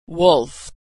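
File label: wolf wolf, American English pronunciation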